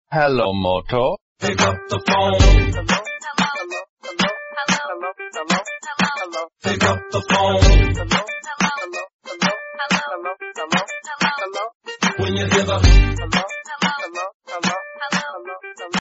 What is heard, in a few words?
hello moto pick up the phone ringtone